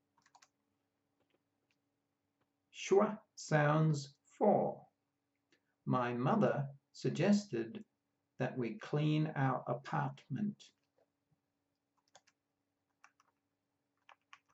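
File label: Schwa sounds, pronunciation of australian english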